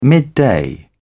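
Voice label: "midday", British English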